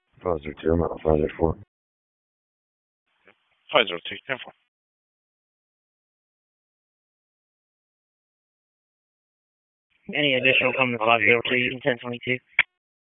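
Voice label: Police Dispatch, p25; Wake Forest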